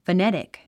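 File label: "phonetic", English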